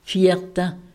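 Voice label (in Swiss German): "viarta", Alsatian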